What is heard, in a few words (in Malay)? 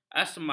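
"asma", Malay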